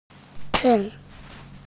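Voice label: "ci4", Mandarin Chinese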